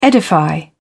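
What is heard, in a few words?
"edify", British English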